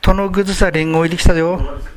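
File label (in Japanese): "tonoguzu", 南山形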